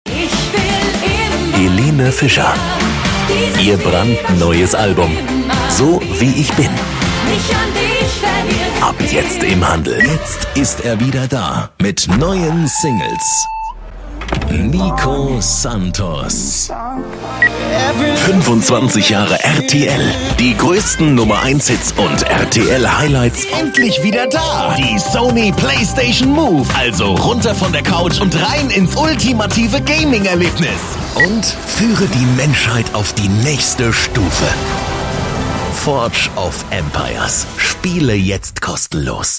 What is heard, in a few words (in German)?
Sprecher TV Werbung bekannte deutsche Stimme; deutschland oesterreich schweiz